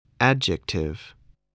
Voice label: "adjective", English voice